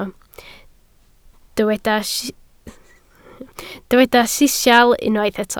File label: "sisial", Welsh